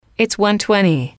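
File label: its one twenty, English, female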